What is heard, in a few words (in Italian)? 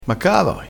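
"caa", dialettale crespinese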